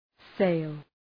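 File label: "sale", English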